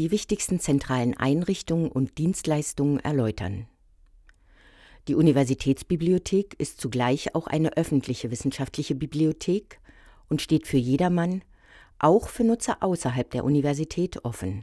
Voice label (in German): Audioguide; Passau